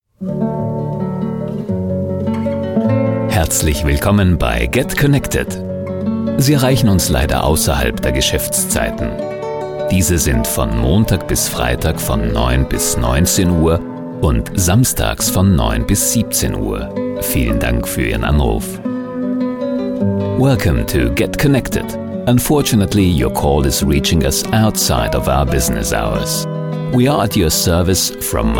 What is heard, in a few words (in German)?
Sprecher Nacht